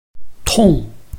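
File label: "tong4", Mandarin Chinese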